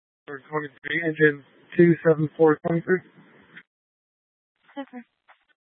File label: Tempe PD, scanner; Rgnl Wireless Co-op (RWC)